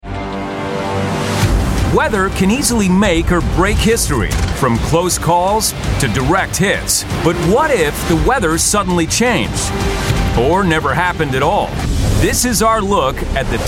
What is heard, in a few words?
Broadcast Narration